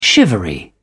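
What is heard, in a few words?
"shivery", British English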